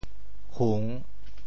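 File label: "hung3", Teochew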